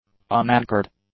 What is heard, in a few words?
"unanchored", English; adjectives